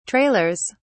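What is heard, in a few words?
"trailers", British English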